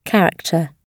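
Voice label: "character", British English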